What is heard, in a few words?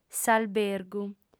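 "s'albergu", female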